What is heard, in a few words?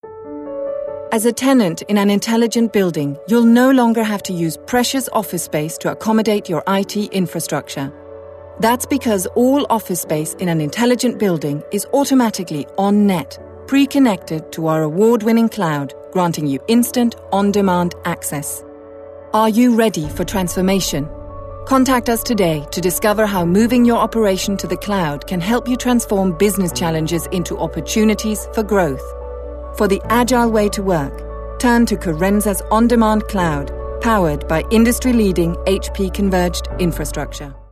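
Danish English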